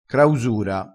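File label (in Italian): "crausura", dialettale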